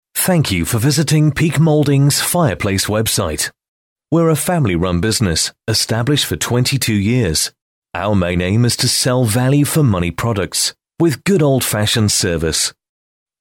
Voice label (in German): Eng UK Fremdtake Neutral